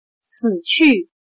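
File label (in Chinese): "死去", Chinese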